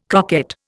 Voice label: "croquette", Indian